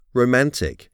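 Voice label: "romantic", English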